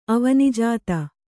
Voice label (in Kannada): "ಅವನೀಜಾತ", Kannada